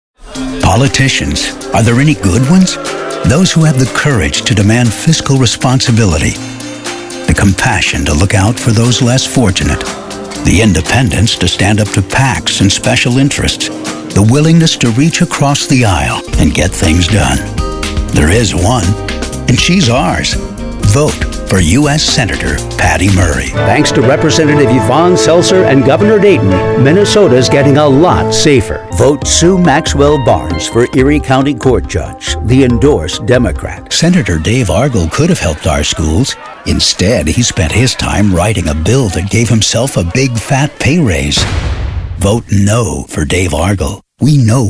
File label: Voice Overs Political